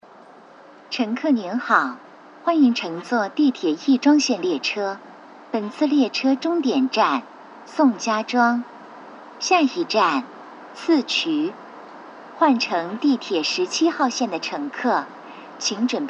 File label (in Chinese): 亦庄线 宋家庄方向始发终到